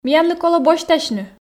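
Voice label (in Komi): миянлы колӧ босьтасьны, Komi, female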